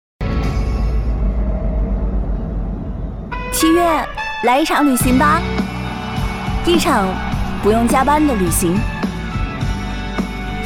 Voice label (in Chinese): 自媒体 素人 旅行